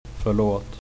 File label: sorry, swedish